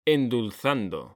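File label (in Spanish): "endulzando", Spanish; mekanta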